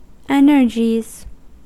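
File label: "energies", US English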